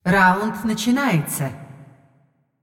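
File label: announcer female tournaments round start; Clash; ru ru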